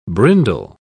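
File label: "brindle", British English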